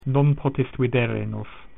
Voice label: "he cannot see us", Latin